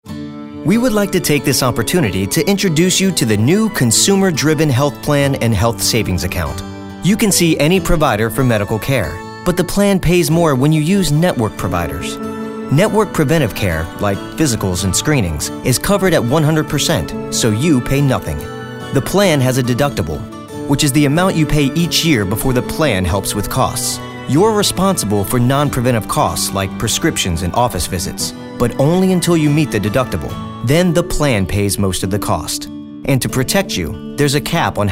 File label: Informative